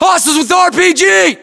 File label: threat rpg, US; localized english; battlechatter